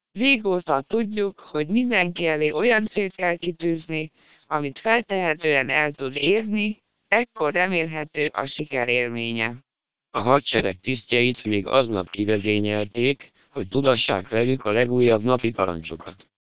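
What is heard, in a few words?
SPR 1200 short, Hungarian speech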